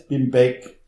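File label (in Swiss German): "beck", Alsatian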